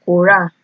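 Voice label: "kora", Nigerian Pidgin, female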